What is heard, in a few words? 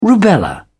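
"rubella", British English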